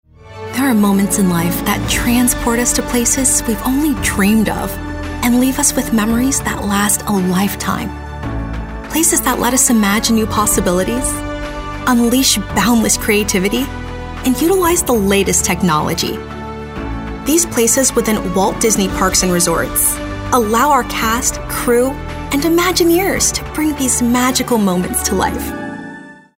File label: Theme Park, retail read with a natural, conversational tone